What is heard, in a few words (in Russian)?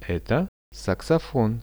"eto saksofon", Russian; Это саксофон; music; RUSSIAN VISUAL DICTIONARY